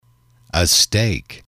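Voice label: "a steak", male, English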